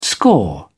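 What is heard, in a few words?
"score", British English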